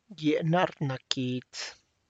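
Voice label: white tuberose flower, Tlacochahuaya Zapotec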